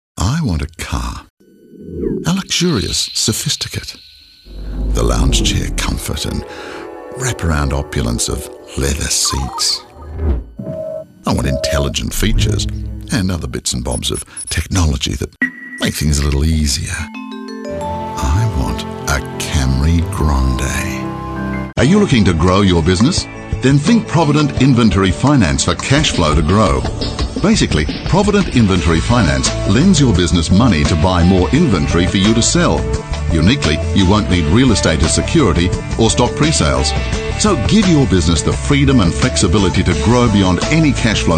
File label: commercials; EM Voices